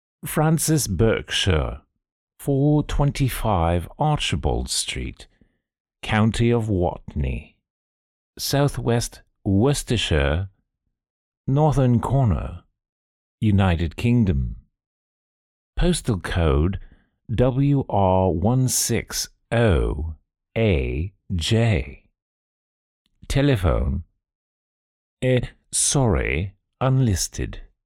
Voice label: British Accent